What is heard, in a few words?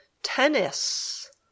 "tennis", English